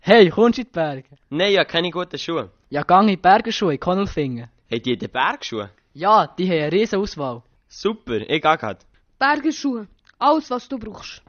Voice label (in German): Schuhe - Jingle; Berger Schuhe